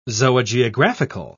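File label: "zoogeographical", British English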